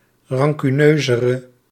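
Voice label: "rancuneuzere", Dutch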